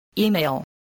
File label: "email", spelling